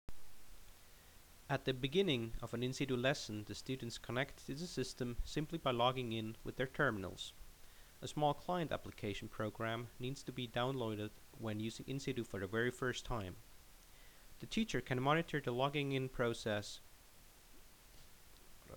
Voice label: Narration